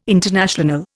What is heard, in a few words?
"international", Indian